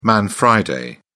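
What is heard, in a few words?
"man friday", English, uk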